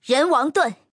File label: "renwang", female